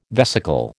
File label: "vesicle", American